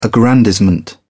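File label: "aggrandizement", British English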